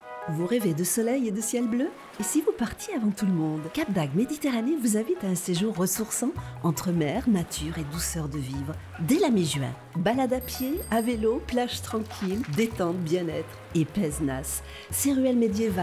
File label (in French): spot